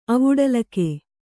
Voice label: "ಅವುಡಲಿಕೆ", Kannada